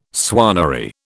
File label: "swannery", British